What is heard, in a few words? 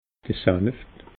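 "saneft", Alsatian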